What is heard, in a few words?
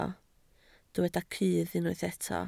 "cudd", Welsh